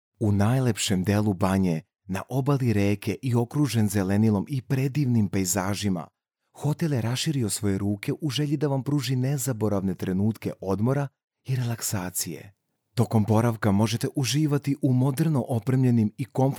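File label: Serbian male voice over, sales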